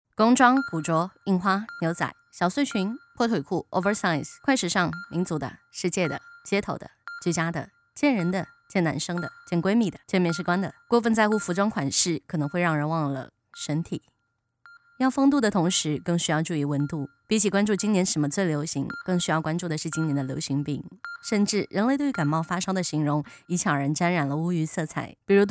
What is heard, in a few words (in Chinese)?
台湾腔 快速